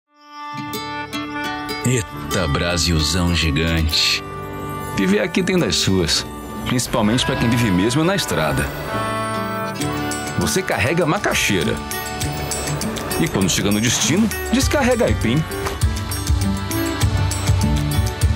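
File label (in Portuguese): LOCUÇÃO, Voz Padrão - Grave, Português - Brasileiro, Masculino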